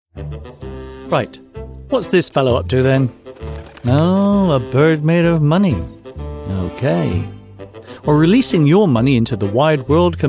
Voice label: advert; barclays

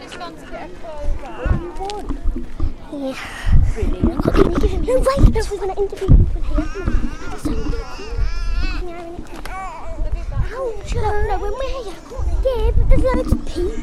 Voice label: child interviews

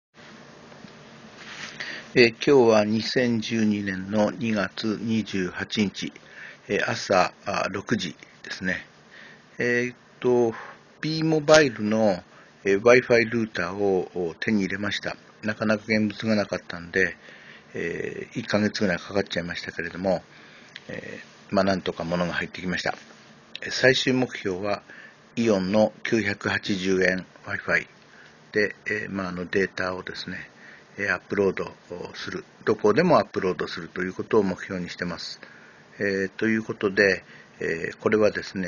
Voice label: send voice with b-mobile u300

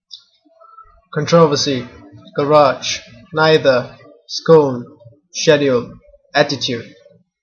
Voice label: Ipoh Malaysia male

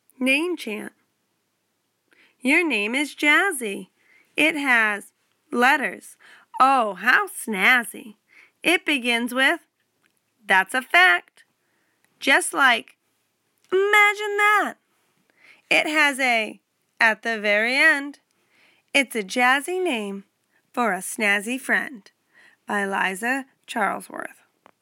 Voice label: name chant poem

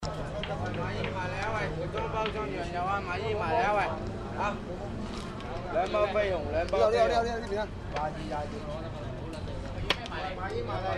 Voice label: Yau Ma Tei street hawker calls

